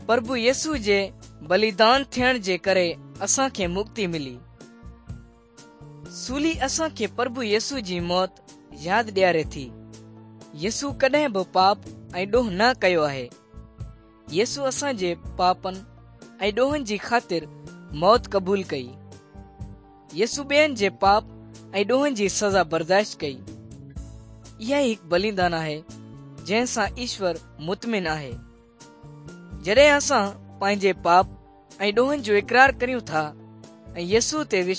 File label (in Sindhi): good news sindhi dukslinu, drama